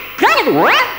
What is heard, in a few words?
"excuse me", vocal